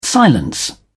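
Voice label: "silence", British English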